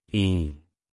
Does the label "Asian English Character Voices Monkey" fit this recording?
No